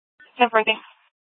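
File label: Scottsdale Police South Dispatch; Scttsdle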